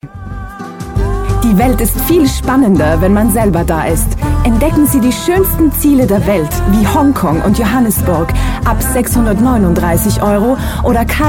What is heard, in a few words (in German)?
Werbung